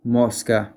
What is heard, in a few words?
Fly spanish